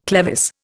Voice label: "clevis", Indian

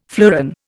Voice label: "fleuron", Indian

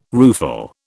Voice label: "rueful", British

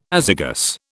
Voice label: "azygous", British

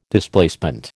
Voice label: "Displacement", American

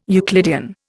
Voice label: "Euclidean", Indian

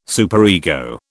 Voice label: "superego", British

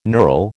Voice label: "knurl", American

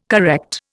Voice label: "correct", Indian

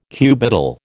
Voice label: "cubital", American

